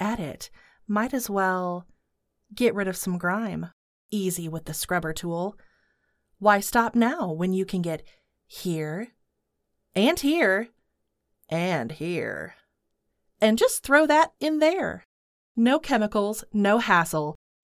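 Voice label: steam mop audition, female voice talent; kenmore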